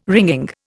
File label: "wringing", Indian